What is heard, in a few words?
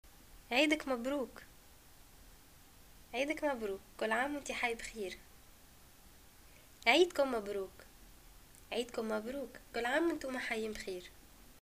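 greeting eid and response, Tunisian Arabic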